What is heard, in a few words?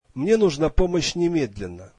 russian I need help immediately